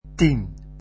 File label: "ten", dutch